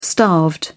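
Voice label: "starved", British English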